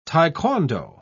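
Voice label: "taekwondo", British English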